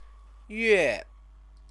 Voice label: "yue", Chinese; 月; month; noun